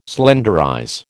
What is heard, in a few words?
"slenderize", American